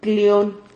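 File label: "clion", Spanish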